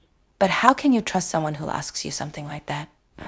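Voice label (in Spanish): Voice Acting, Spanish, female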